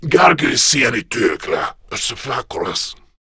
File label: Patrol, Male